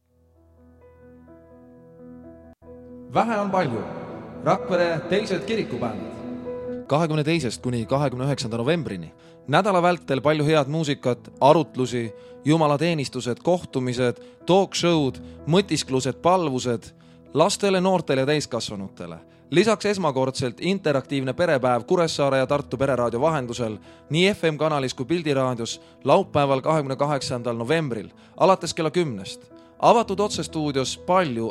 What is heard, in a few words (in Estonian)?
reklaam